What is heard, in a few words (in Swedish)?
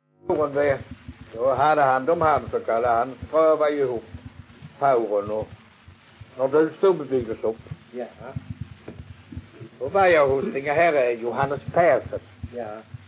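Allerum Skåne, dialekter svenska sagor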